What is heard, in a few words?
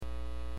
Aviation 121.5 Emergency